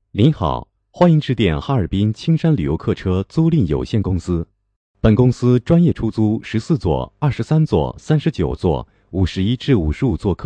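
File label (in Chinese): 男彩铃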